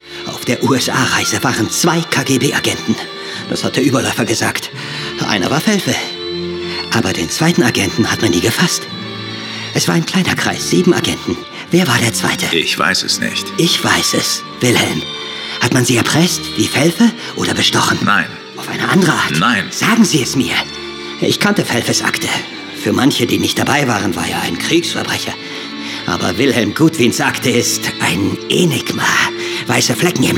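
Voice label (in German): Hörspiel erregt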